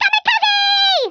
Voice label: "kamikaze", American; Speech; International

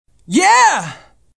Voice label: Male Psyched; Survivor The Interactive Game; Player

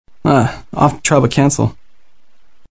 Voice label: Male; Survivor The Interactive Game; Player

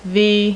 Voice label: "v", female, alphabet; Web Clip Empire 150,000

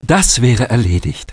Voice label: priest Pick Up, german

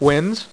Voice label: "WINS", Dealer's Speech

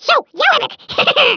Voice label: "Revenge", Dutch; Speech; International; 11khz

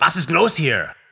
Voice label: german; SpecOps2MPlayerDemo; Passes close here!

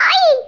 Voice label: "ouch", Spanish; 11khz; Speech; International

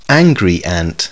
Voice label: angry

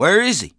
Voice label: taunt, English Voice

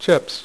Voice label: "CHIPS", Dealer's Speech; PowerPOKER Demo

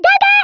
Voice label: "Take cover", Speech, Dutch; International; 11khz

